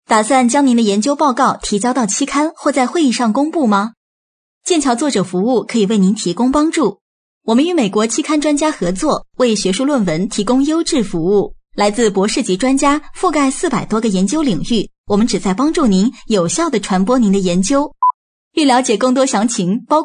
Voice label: Chinese Mandarin